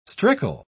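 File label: "strickle", English, British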